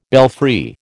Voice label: "belfry", American